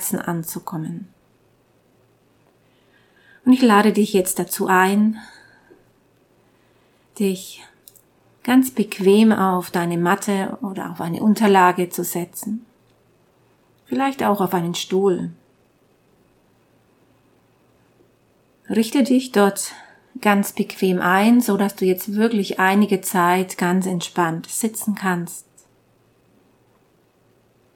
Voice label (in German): Herzmeditation Hoerprobe